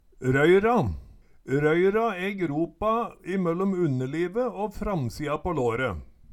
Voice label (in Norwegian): "røyra", Numedalsmål